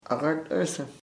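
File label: comb peine, Ishir Chamacoco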